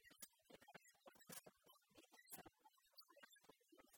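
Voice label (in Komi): коми республикаысь ортсыса кутшӧм инъясӧ овмӧдчӧмаӧсь комияс, Komi, female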